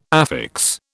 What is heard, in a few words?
"affix", British